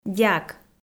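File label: "дяк", Komi, female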